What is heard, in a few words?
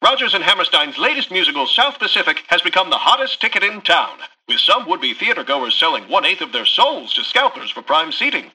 Newscaster headline